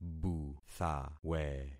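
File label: "buthawe", concatenated syllables; Affixing experiment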